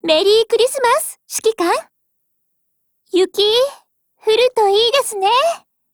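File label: christmas, Japanese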